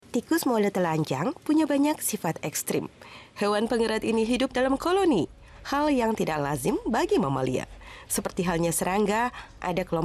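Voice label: female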